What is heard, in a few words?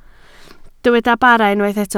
"bara", Welsh